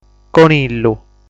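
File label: "Conillu", Sardinian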